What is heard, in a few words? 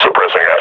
suppressing, combine grunt; Half Life Model Pack